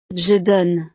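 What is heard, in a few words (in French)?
"je donne", French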